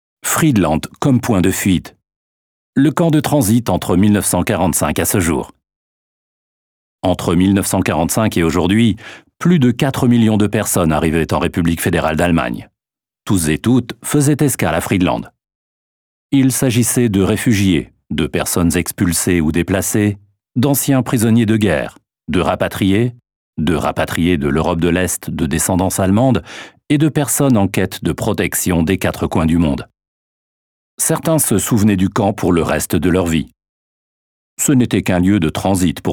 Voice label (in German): Audioguide kommentar; Friedland